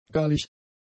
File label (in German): "gallig", German; adjectives